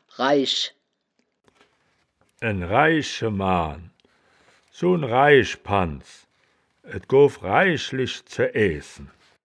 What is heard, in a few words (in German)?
"raisch", wittlich